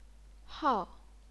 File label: "hao4", chinese pinyin